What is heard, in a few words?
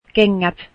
shoes, finnish